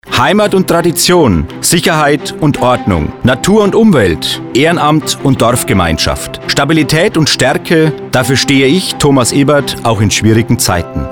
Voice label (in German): Funkspot; CSU; Landratskandidat Stichwahl; Funkspot Serie